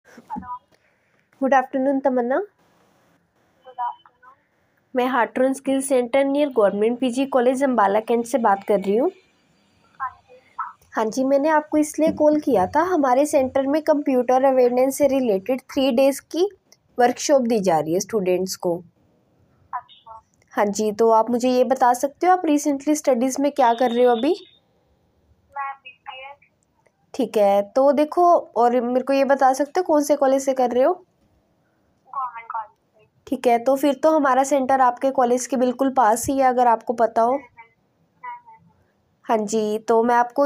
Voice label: call recording